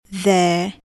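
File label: "their", English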